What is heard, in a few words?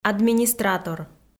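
"администратор", Komi, female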